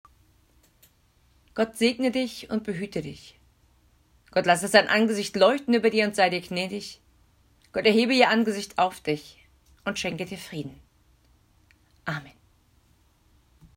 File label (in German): Segen deutsch